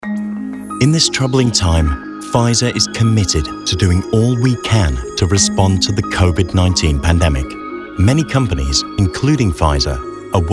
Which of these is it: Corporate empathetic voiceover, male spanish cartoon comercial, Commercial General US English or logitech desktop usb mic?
Corporate empathetic voiceover